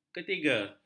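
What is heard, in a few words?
"ketiga", Malay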